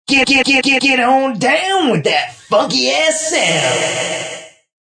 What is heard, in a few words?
Get On Down, Male; Radio Sound Effects; VoiceBox; Twistedtracks